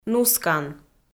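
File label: "нускан", Komi, female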